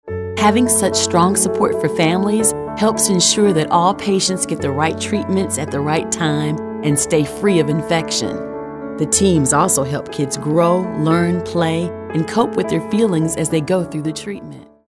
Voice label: thoughtful

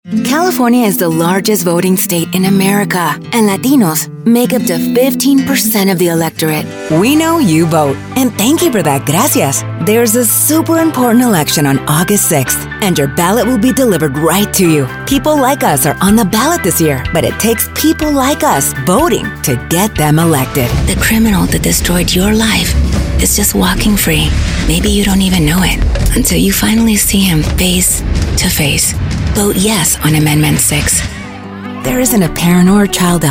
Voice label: ENGLISH POLITICAL REEL

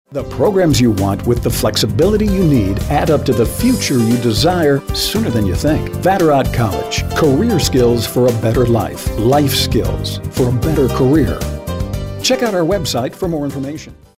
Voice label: informative

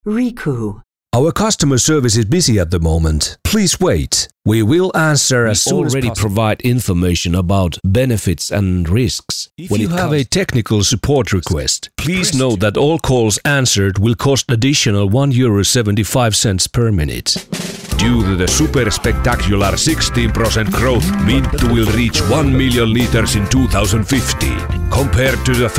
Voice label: English Showcase, deep

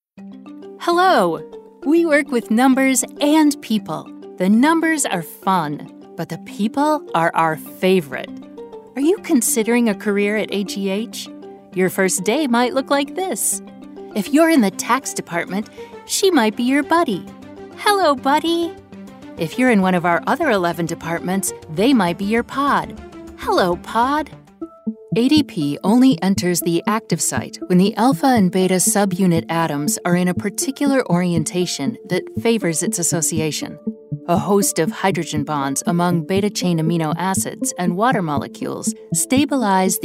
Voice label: Corporate

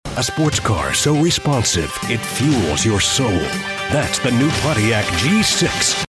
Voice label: Authoritative

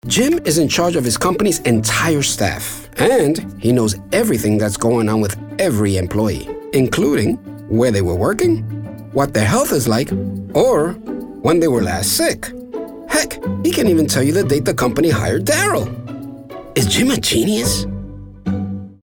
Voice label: English Conversational; Genius

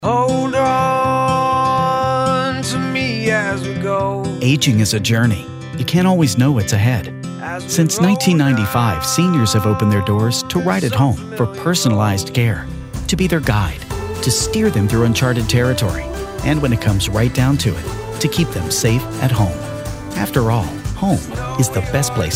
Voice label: warm

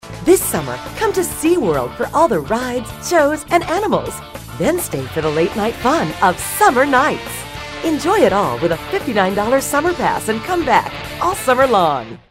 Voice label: high energy

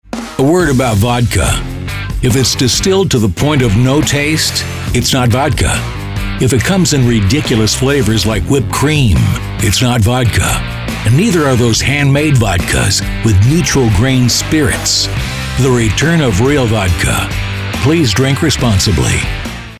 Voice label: tough gritty narration

